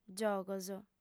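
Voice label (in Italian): "giogos", lingua sarda, female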